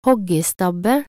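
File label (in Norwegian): "hoggestabbe", Norwegian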